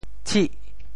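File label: "tshih8", Teochew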